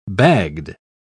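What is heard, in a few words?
"bagged", British English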